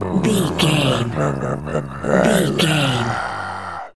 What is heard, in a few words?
zombie voice brains